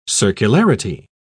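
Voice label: "circularity", British English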